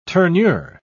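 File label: "tournure", British English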